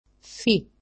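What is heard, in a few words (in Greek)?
"phi", Greek; alphabet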